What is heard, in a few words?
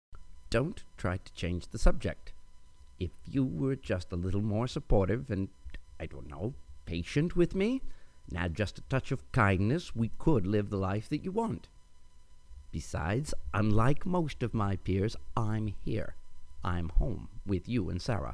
radio scientist, voiceover